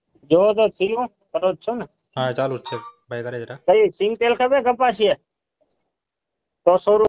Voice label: Call recording